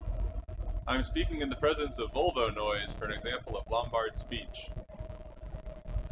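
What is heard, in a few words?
car enhanced, Enhanced Speech, Volvo Noise; Single Channel Noise; Clean, noisy and enhanced speech; Lombard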